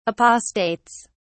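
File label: "apostates", British English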